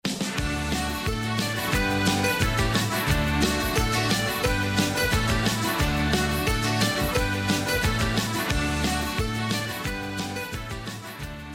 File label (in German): Mundart; Erinnerungen einer 90-Jährigen und Theater in Moresnet